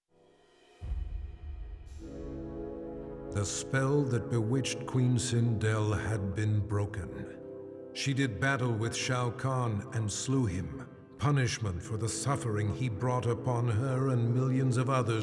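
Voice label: music voice over endings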